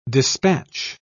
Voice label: "dispatch", pronounce